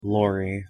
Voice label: pronunciation en "lorry"